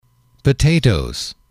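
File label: "potatoes", English, male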